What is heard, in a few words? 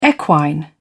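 "equine", British English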